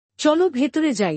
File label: "Let's go inside", Bangla; Basic Greetings and Courtesy